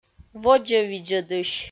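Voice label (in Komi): "водзӧ видзӧдысь", Komi, female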